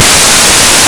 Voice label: sith, voices male; Истинный Ситх